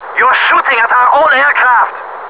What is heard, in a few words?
German; combatfs